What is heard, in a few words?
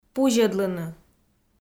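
"пузьӧдлыны", Komi, female; kpv audio dict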